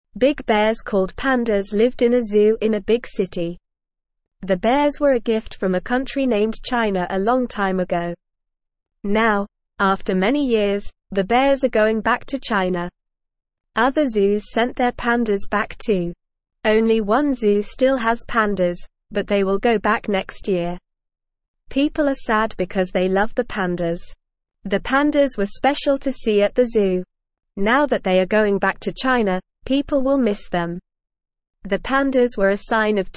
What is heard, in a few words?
English Newsroom Beginner NORMAL Reading; Pandas Going Back to China from the Zoo